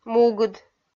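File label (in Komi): "мугыд", Komi, female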